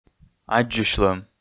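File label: "аддзысьлӧм", Komi, male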